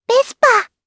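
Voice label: Vox Japanese; Lavril; Vespa; kingsraid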